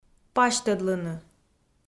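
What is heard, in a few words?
"пасьтӧдлыны", Komi, female; audio dict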